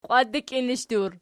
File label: "qiwatdiklinishdur", Archi